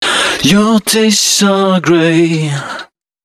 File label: male d# 130, short hooks, Dance Voice